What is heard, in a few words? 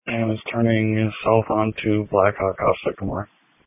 Police Tactical Encrypted